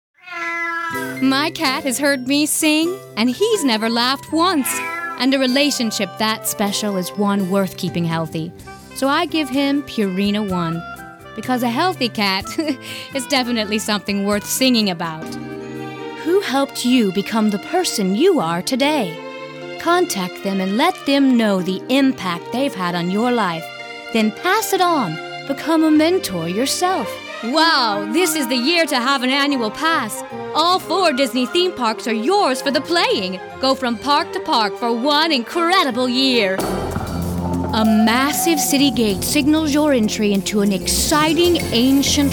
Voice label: Commercial Voice Over